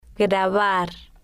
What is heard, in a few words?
"grabar", spanish